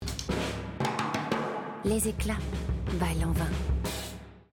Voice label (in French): pub TV FR; Lanvin